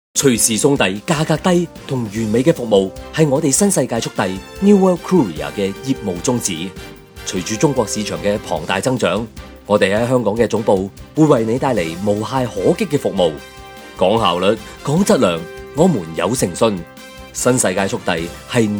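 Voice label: Cantonese Narration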